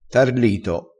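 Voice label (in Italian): "tarlito", vocabolario dialettale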